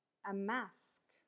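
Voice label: "a mask", English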